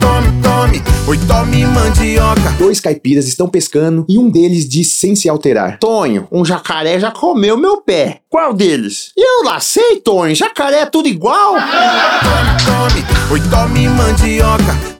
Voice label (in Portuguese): spot piada; rei da mandioca; riso do radio